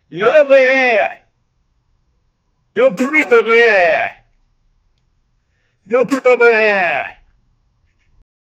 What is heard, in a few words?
man saying uy vandal